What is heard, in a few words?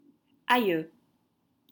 "Aieux", French